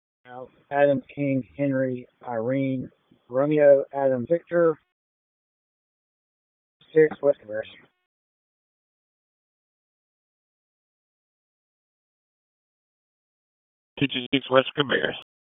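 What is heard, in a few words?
Police District Dispatch, p25; Raleigh; Southeast; wake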